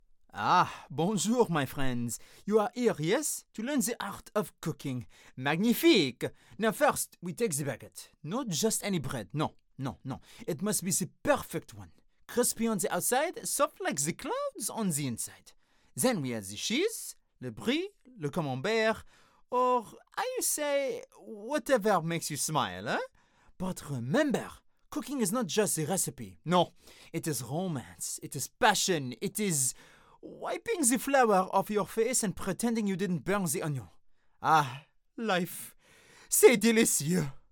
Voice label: French Accent